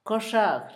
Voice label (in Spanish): mejilla, Zapoteco, Asunción Ocotlan; Cuerpo; INALI; Haspelmath